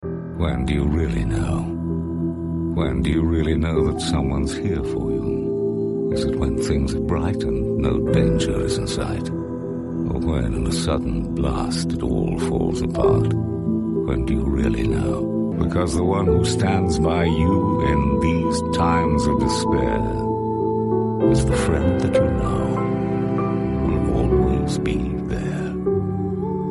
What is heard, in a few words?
RP English; Securite Assurance